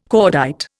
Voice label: "cordite", Indian